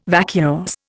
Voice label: "vacuous", Indian

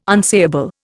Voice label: "unsayable", Indian

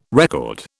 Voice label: "record", British

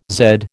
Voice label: "zed", American

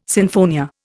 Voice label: "sinfonia", Indian

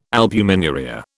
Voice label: "albuminuria", British

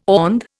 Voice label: "OND", Indian

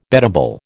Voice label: "beddable", American

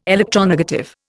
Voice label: "electronegative", Indian

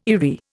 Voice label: "eyrie", Indian

